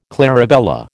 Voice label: "clarabella", American